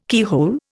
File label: "keyhole", Indian